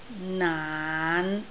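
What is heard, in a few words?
"naan5", Cantonese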